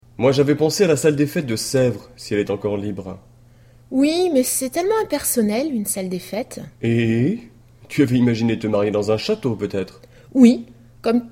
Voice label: dialogue, French